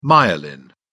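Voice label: "myelin", British English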